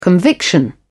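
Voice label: "conviction", British English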